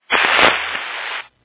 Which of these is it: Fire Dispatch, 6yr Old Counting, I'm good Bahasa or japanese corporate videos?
Fire Dispatch